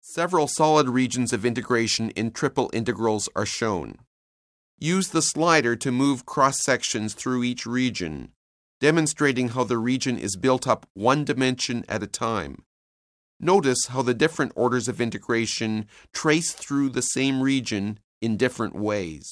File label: narration; CALCULUS 7E; cengage